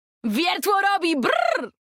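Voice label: Polish; 探奇（守望先锋2）/终极技能; 波兰语; Wiertło robi brrr!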